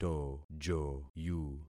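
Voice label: "tojoyu", concatenated syllables; Affixing experiment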